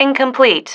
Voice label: "incomplete", female